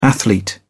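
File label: "athlete", English, uk British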